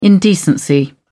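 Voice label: "indecency", British English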